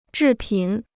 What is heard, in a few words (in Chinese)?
"制品", Chinese